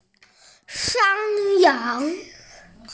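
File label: "shān yáng", Mandarin Chinese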